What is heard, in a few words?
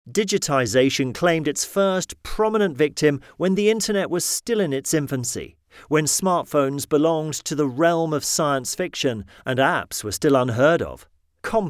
male british voiceover audiobooks